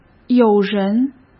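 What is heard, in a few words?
"友人", Mandarin Chinese; chinese-flashcards; hsk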